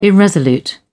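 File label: "irresolute", British English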